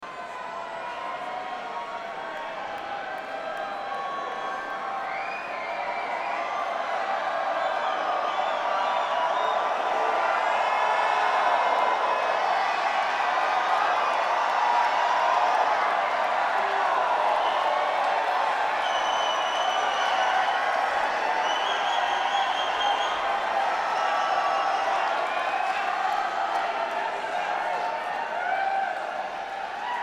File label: Banter Crowd